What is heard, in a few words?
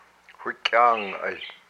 "my arm", hupa